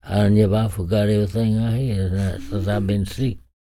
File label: talk, spoken, blues soul